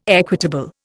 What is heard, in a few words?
"equitable", Indian